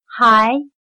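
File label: "孩" Chinese, Hái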